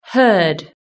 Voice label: "heard", British English